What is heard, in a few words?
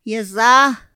"ra za", Otomí; Días de la semana; INPI; INALI